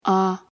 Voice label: "alif", Arabic